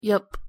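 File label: "yup", English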